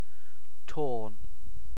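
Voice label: "torn", British English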